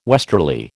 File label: "westerly", American